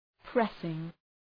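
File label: "pressing", English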